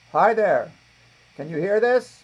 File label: raw voice; multimedia